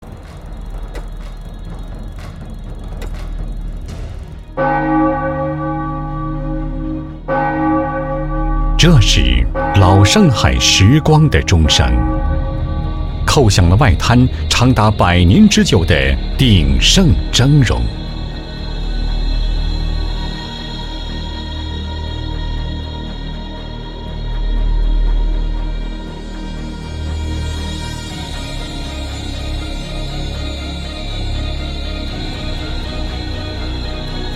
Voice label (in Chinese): 男,环幕